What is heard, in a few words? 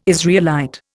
"Israelite", Indian